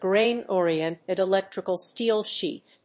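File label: "Grain-oriented electrical steel sheet", English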